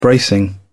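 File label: "bracing", English, British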